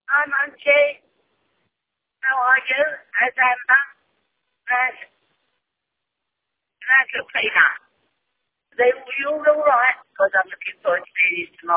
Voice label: voicemail